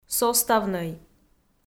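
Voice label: "составнӧй", Komi-Zyrian, female; audio dict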